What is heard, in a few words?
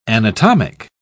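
"anatomic", English, British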